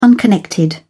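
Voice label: "unconnected", British English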